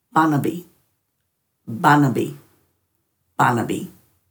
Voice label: "banabi", Dhurga